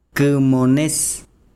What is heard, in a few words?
besiro arco, chiquitano